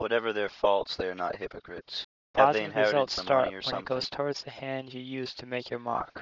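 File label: mixture 2 speakers male harmonic; Timit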